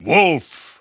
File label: English Announcer; Wolf; SSBB